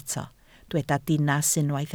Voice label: "dinas", Welsh

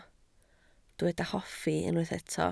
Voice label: "hoffi", Welsh

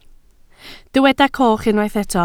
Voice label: "coch", Welsh

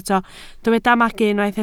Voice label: "magu", Welsh

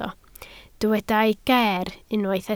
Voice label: "ger", Welsh

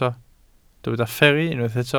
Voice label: "fferru", Welsh

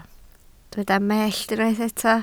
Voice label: "mellt", Welsh

